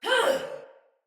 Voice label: Attack Sinecure Soldier Female